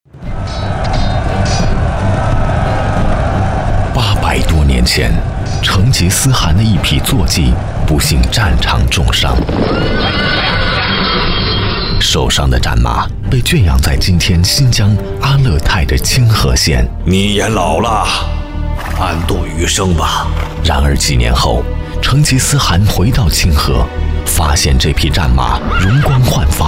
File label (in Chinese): 男 广告 沙棘果汁广告